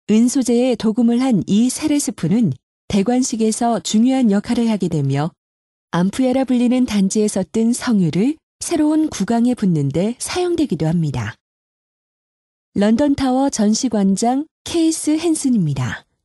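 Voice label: KOR F various, Korean, female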